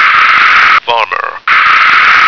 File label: "farmer", American